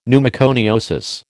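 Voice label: "pneumoconiosis", American English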